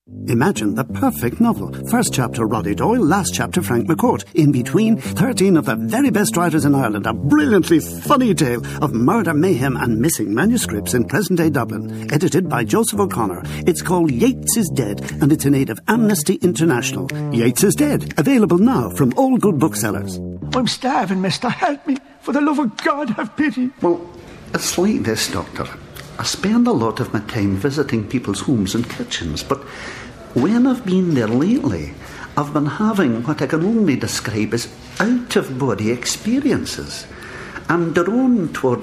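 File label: English Irish, male, commercial; voice demos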